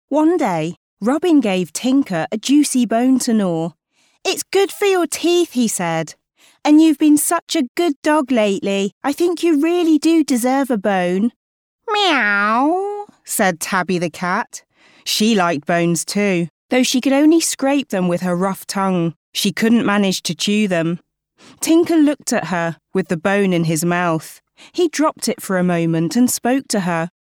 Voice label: Character Cartoon Voice Overs